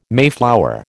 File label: "mayflower", American